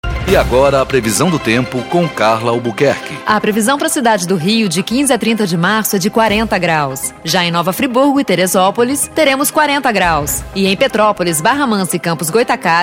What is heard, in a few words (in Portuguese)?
spot; SESC